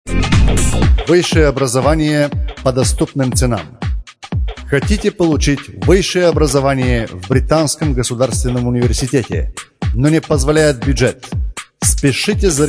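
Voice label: russian male voice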